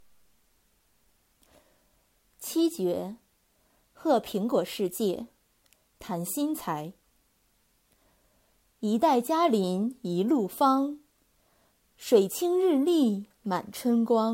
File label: Apple Poem Mandarin read